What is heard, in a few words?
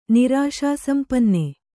"ನಿರಾಶಾಸಂಪನ್ನ", Kannada